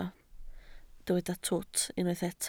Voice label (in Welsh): "twt", Welsh